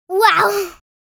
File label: "Wow" surprise, girl child kid